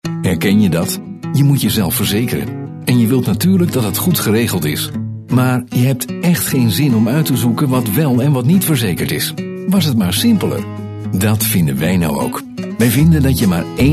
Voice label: corporate video